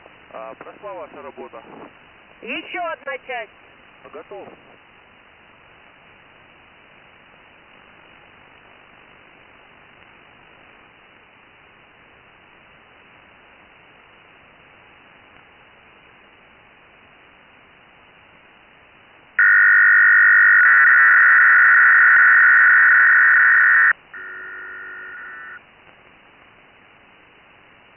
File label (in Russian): obmen pograncov sms-kami priatnij zenskij golos